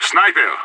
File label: sniper spotted, radio; SAS